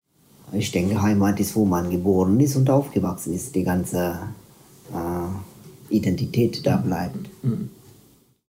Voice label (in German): tamilisch sri lanka